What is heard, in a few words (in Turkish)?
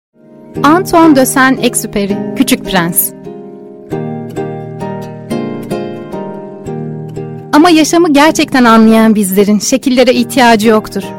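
kitap, Turkish; kucuk prens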